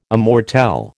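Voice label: "immortelle", American English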